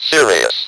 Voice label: "SERIOUS", Speak & Spell